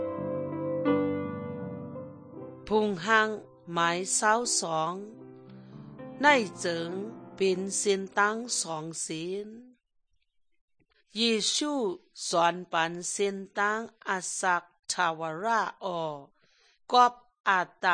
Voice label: good news shan eastern, drama